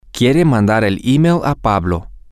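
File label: she wants to send the email to, Spanish, male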